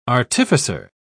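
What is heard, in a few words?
"artificer", English, British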